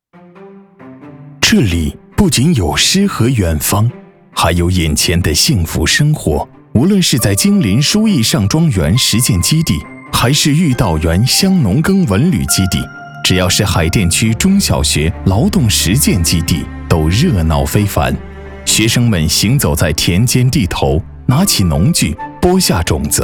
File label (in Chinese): 男 纪录片; 看得见风景的课堂